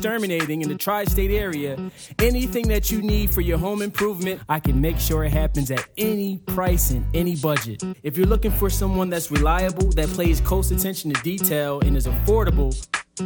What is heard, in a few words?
Radio Commercial